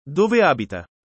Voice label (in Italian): chiedere formale, italiano